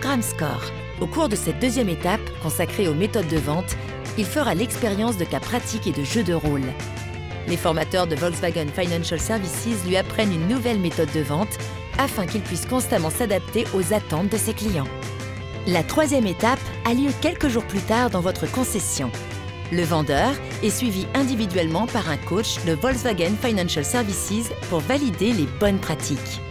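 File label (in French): Film Formation corpo souriante, voix off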